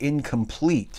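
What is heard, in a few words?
"incomplete", US English; pronunciations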